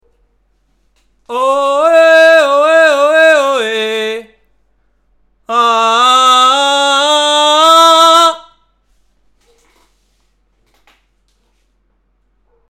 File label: Slime male